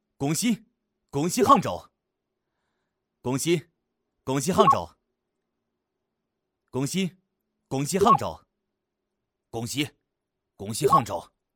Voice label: 河南方言 男 恭喜杭州 河南话; 方言配音

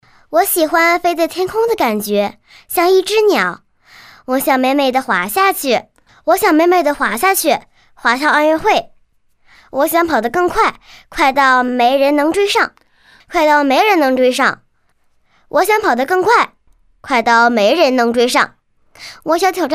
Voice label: 女 女真童; 安踏儿童; 真童配音

